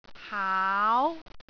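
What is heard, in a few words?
"haau2", Cantonese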